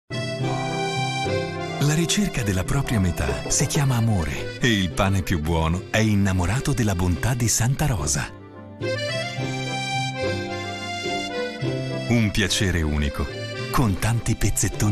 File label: ITALIAN VOICES Recent Commercials, male voices